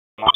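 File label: Public Safety Tactical, p25; wake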